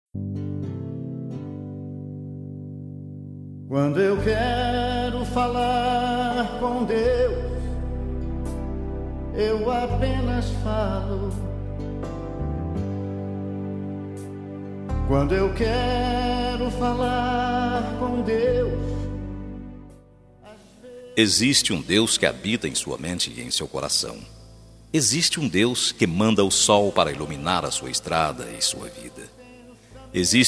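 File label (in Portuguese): ANIV RELIGIOSOS male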